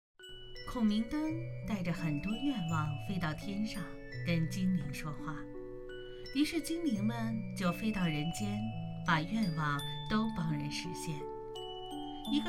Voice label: 儿童节目 缩混; 第十二课打卡练习之儿童节目缩混

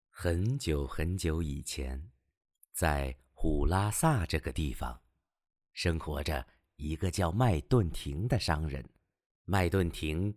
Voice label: 有声故事试音

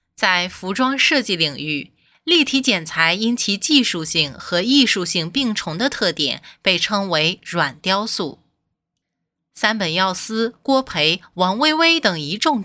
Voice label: 课程先导片配音

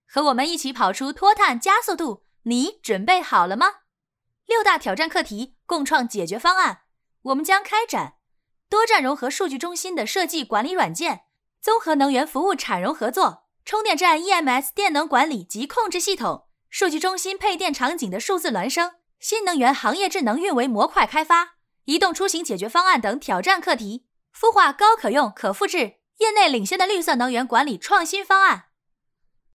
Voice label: 商业介绍年轻活力未来感

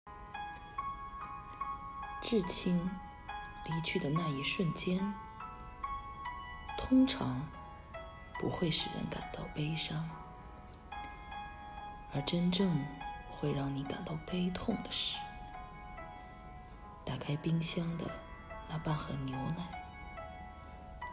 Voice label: 独白离去的悲伤

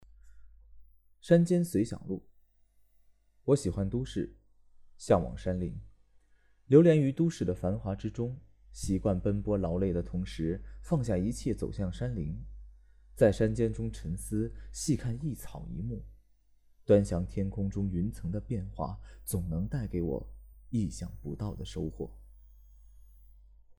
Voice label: 朗读

